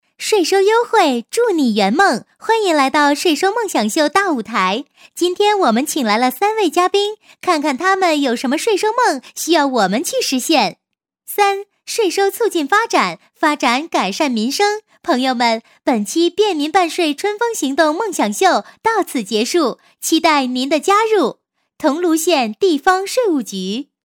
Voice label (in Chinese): 女53 节目, 国语女声配音; 税收梦想秀; 省台女配